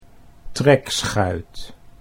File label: "trekschuit", Dutch